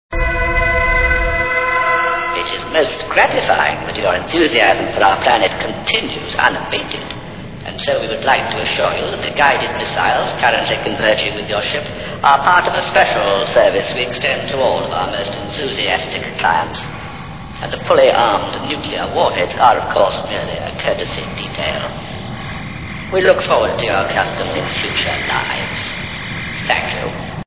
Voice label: threat; Smile; cat